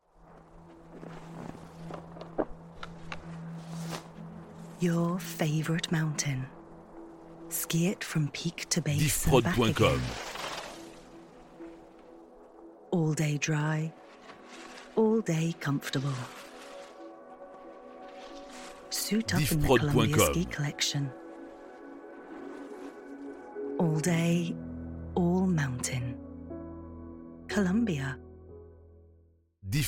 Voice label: Spot UK, publicite; Columbia